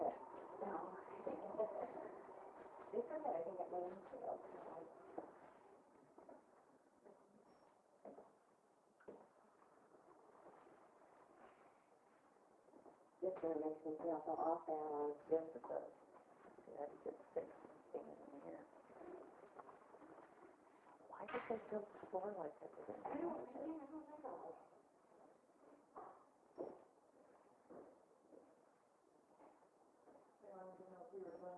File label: whispers go go and something else; bedroom with slanted floor by restroom; willis b boyer ship; DISEMBODIED VOICES OR EVP